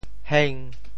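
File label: "heng5", Teochew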